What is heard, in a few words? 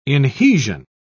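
"inhesion", British English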